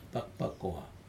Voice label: "pakpakoa", breadfruit, Aneityum

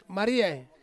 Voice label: "mariai", Ansus

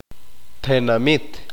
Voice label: "Pueblo", Q'eqchi'

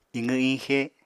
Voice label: "iqw-iñxe", Apatani

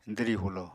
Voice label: "dwri-hulo", Apatani

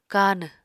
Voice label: "kanw", Apatani

